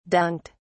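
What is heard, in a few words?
"dunked", British English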